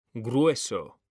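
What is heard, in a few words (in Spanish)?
"grueso", Spanish, male; mekanta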